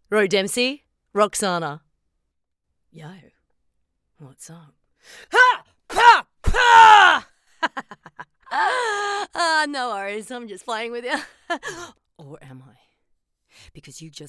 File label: female